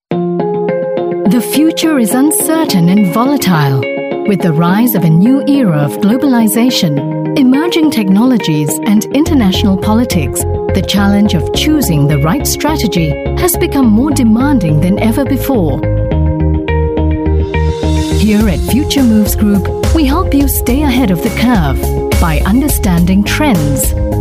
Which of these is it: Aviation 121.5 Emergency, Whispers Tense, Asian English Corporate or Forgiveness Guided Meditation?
Asian English Corporate